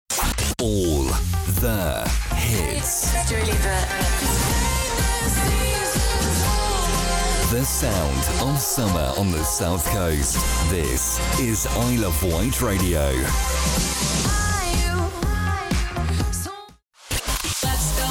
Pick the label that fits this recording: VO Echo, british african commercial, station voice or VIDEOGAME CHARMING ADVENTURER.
station voice